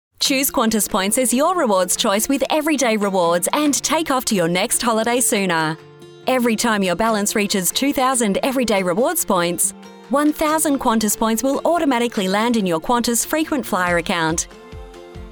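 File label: Commercial, British English; Woolworths Qantas Spotify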